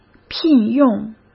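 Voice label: "聘用", Mandarin Chinese; hsk; chinese-flashcards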